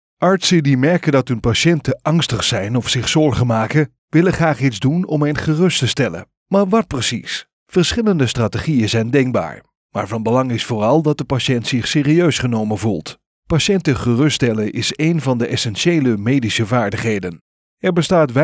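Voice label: Dutch, male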